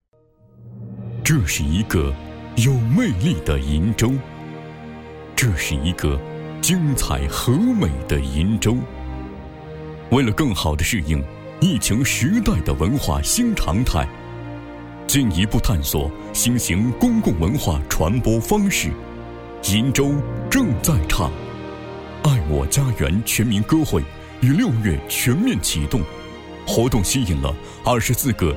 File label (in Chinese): 男 浑厚大气城市宣传; 鄞州